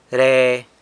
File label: "re", there, San Lucas Quiavini